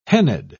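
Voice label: "hennaed", British English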